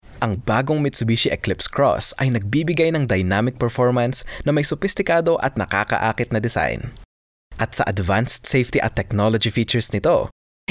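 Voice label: Tagalog male Commercial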